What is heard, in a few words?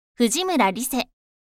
free talk